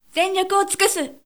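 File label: female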